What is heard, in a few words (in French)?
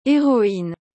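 "heroine", French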